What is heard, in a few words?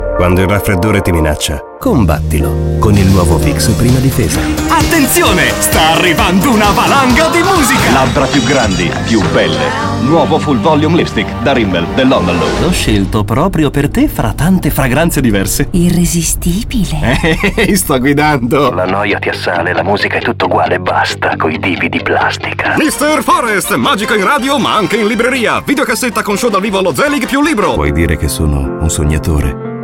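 Italian general; GoLocalise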